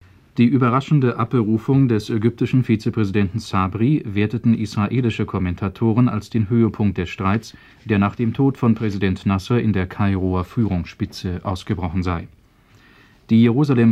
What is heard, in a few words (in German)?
Doppelsprecher Nachrichten; SWF 1971